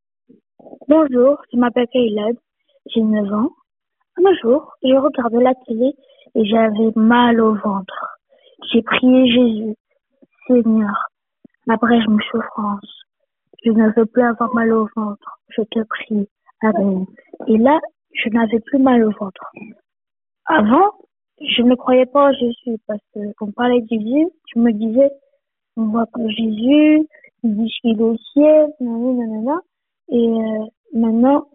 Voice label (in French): Témoignage enfant sur Radio Gospel